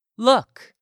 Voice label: "look", English; 3000 words